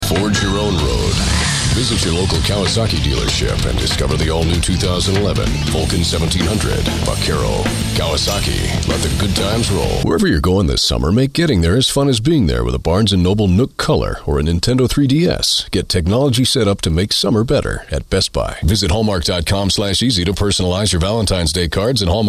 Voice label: Commercial